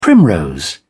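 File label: "primrose", British English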